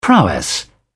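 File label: "prowess", British English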